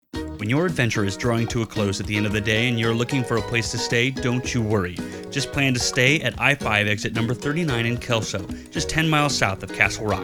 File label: Commercial